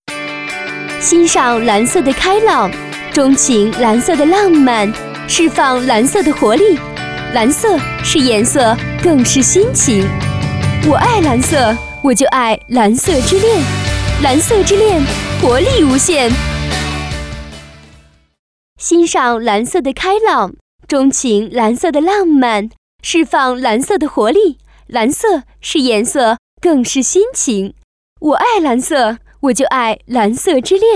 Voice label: 女 动感时尚; 实惠知性; 蓝色之恋动感时尚

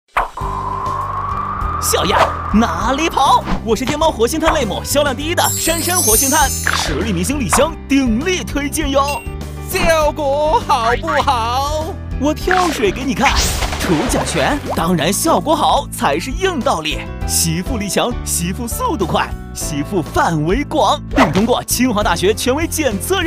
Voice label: 男 搞怪幽默; 飞碟说

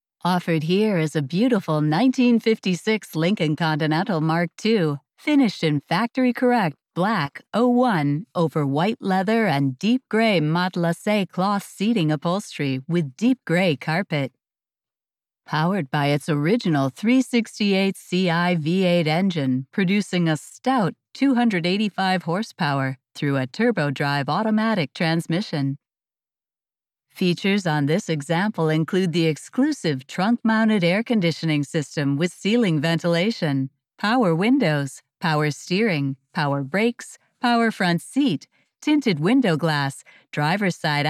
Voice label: 外籍女 专题类, 英语配音

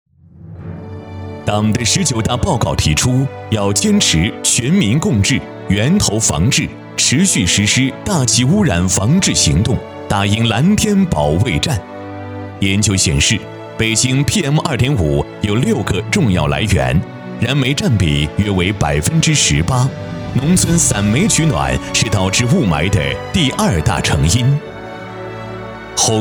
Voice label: 男 煤电改项目

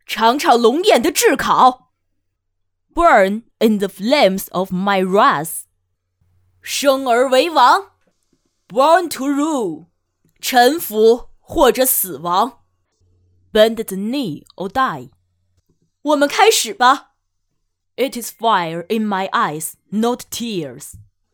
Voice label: 女 中英; 权力游戏中英

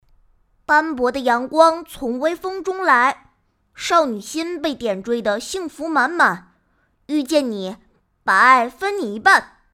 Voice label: 女 男童

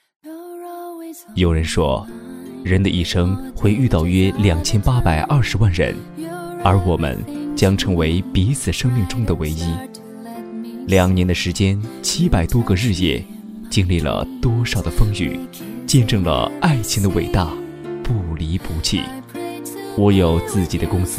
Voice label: 男 沙画 低沉讲述

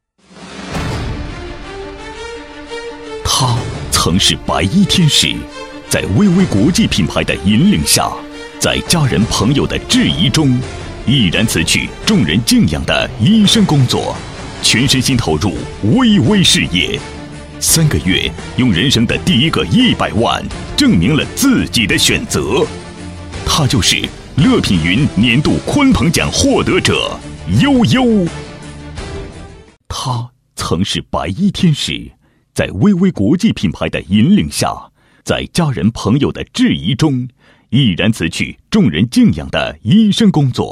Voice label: 男 人物颁奖 大气震撼

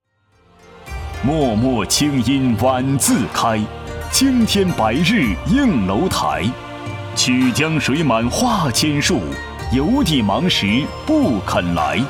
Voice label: 男56 宴会结束致辞; 大气

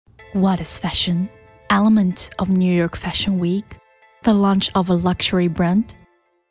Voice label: 女 英-奢侈品 时尚 气质 性感 空灵 广告

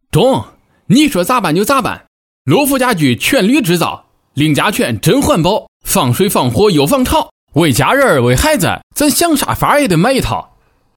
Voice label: 男 家具

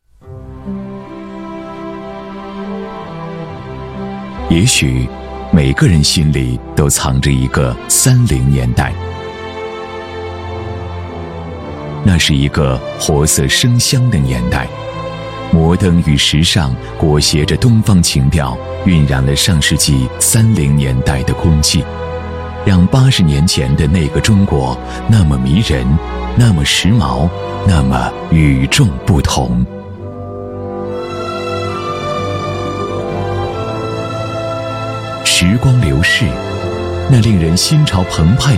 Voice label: 男 厚重舒缓; 城南往事

